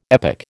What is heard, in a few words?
"epic", American